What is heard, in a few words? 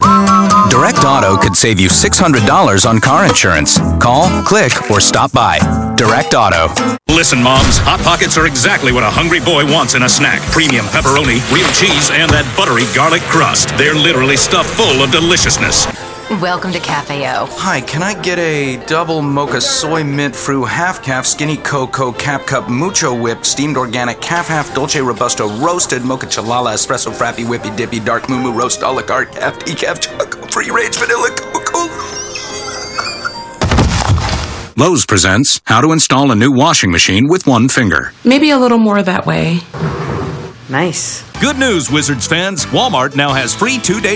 Commercial